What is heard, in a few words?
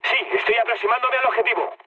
near waypoint, mood med, speech; War Thunder